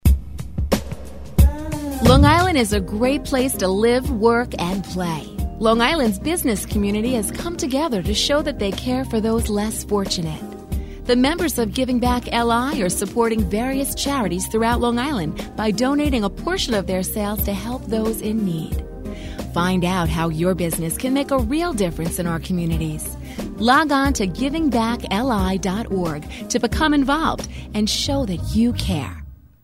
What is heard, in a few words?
PSA